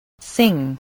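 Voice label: "sing", spoken word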